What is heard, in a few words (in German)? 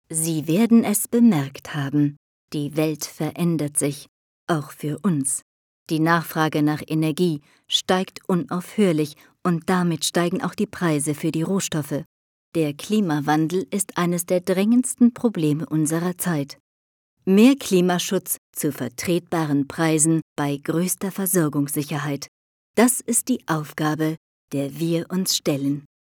werbung industrie